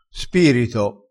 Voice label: "spirito", crespinese

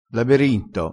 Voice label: "laberinto", crespinese

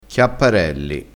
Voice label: "chiapparelli", crespinese

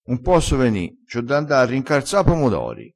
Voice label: "rincarza", crespinese, vocabolario dialettale

